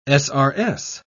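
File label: "srs", British English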